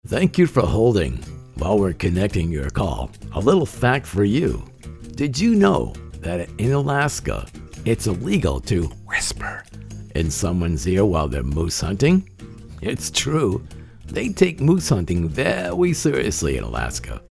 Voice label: ON HOLD COMICAL PHONES